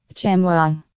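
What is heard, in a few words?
"chamois", US English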